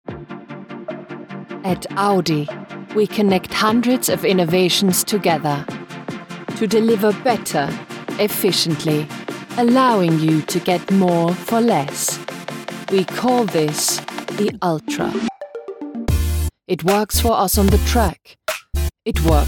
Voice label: English German Accented

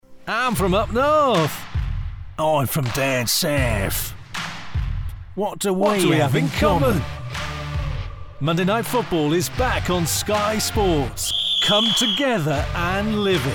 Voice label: commercial

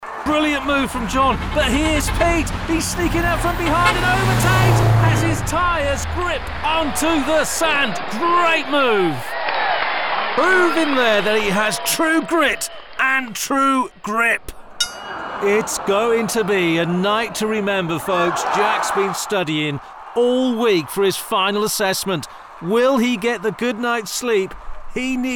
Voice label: SPORTS COMMERCIAL

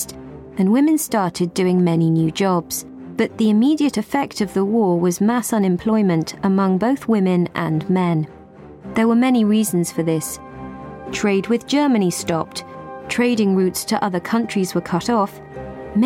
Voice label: Narrative

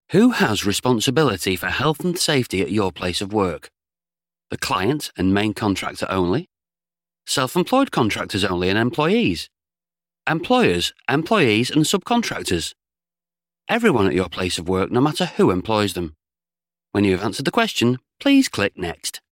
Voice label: E Learning

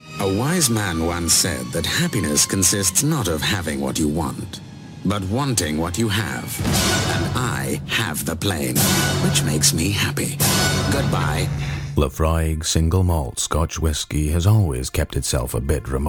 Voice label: character

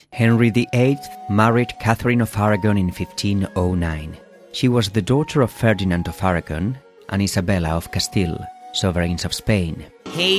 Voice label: ENG

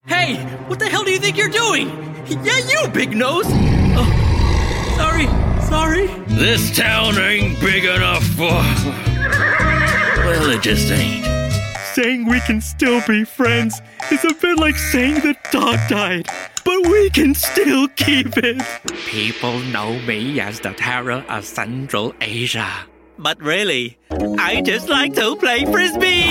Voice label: Character

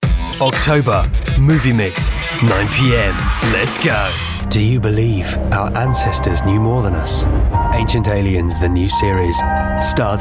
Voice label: Promo

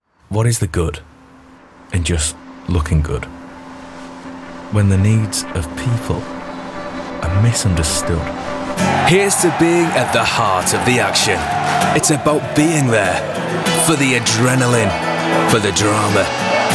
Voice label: Commercial; Showreel

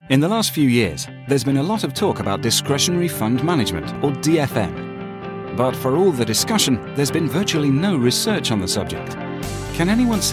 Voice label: Corporate

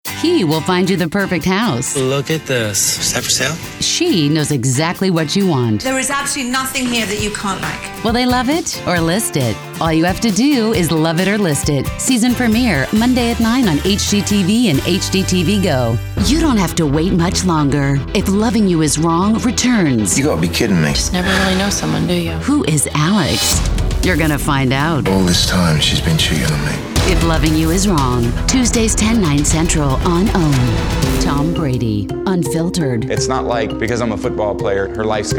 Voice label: VO Promo

